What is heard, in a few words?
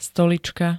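"stolička", Slovak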